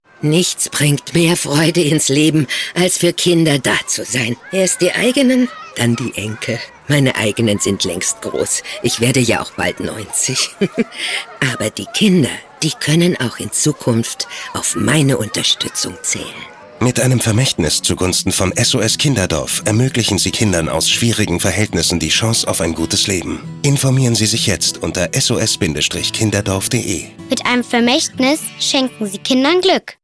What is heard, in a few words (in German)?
Spots, Szene, frauen; SOS-Kinderdorf